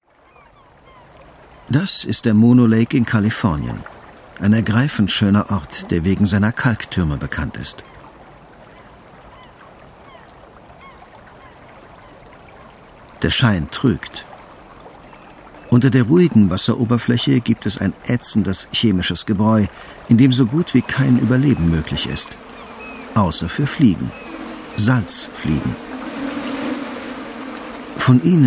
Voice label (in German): Kommentar; Superswarm